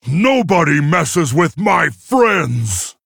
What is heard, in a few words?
Male Tauren Play